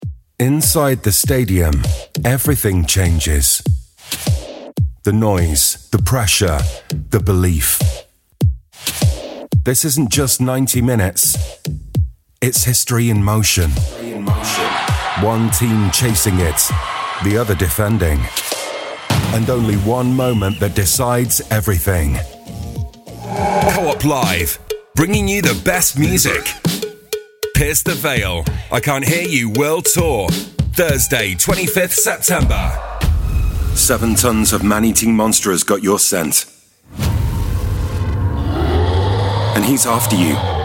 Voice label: promo sport, voiceover